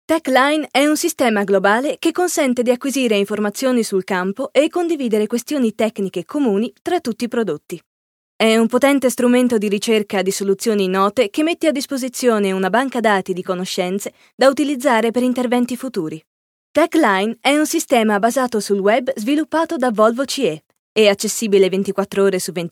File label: it corporate